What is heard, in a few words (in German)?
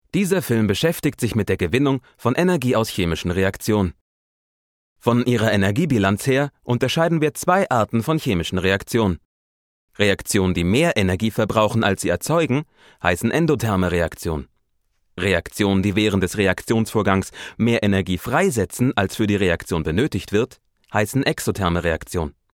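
elearning, deutsch männer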